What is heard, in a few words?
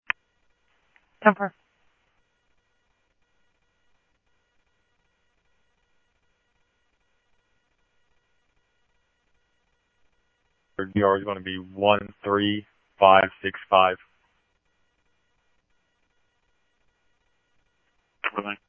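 Simulcast police department, scanner audio trunk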